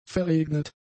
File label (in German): "verregnet", german; adjectives